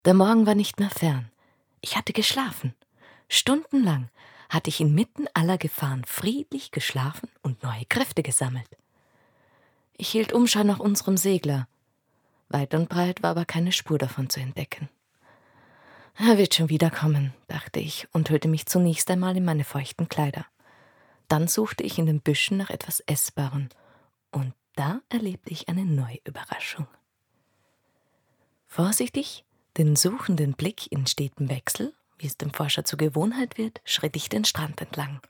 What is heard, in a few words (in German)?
Hörbuch